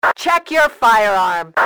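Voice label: check your fire, radio, female; XBOX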